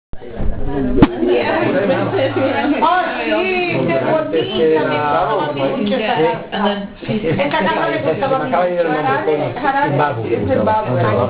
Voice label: conversation